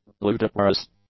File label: "ludicrous", English; adjectives